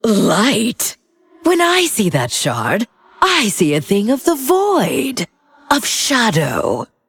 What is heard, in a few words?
Female Undead